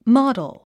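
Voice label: "model", English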